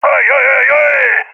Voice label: cheer, balkan; vo; balkan epic

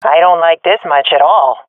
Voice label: scared, swat fem; Counter Strike Model Pack; CS:GO

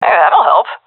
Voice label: thankful, seal fem; vo; Counter Strike Model Pack; CS:GO

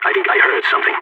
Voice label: heard noise, balkan; vo

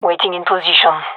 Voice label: in position, gendermerie fem; vo

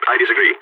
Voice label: disagree, balkan; vo

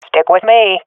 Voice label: request follow me, swat fem; vo; agents; Source Model Packs; Counter Strike Model Pack; CS2

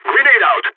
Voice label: grenade, balkan; vo

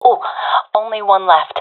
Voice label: enemies left one, professional fem; vo; Counter Strike Model Pack; CS:GO

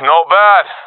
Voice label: compliment, professional epic; vo; Source Model Packs; Counter Strike Model Pack; CS2

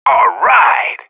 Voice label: radio bot cheer; Counter Strike Model Pack; CS:GO

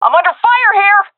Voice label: taking fire, vo fem; agents; Source Model Packs; Counter Strike Model Pack; CS2

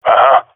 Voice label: affirmation, jungle male; vo; agents; Source Model Packs; Counter Strike Model Pack; CS2; jungle male epic

